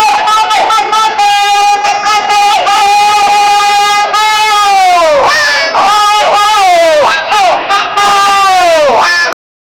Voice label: the policeman is shouting